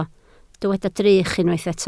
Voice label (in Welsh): "drych", Welsh